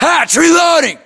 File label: inform reloading, US